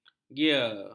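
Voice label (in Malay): "gear", Malay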